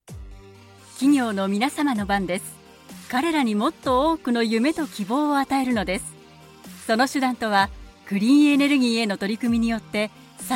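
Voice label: Japanese corporate; GoLocalise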